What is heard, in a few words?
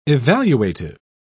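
"evaluative", British English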